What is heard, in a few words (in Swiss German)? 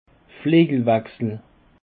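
"flegelwechsel", Alsatian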